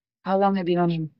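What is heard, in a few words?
Voice Acting es, female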